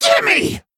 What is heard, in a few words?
Male Gnome Attack